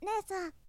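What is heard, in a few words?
"nesan", boy; shonen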